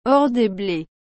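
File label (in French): "or des blés", French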